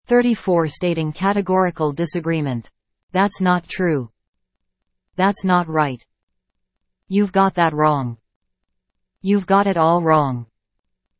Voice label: Stating categorical disagreement; FRIENDS OF VSSUT; podcast